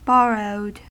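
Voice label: "borrowed", US English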